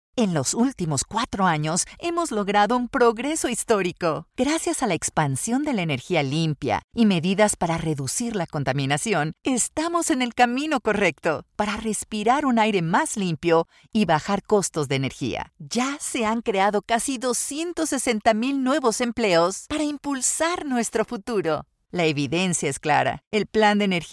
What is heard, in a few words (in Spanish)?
PSA espanol